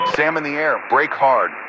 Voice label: Radio pilot Warning SAM Launch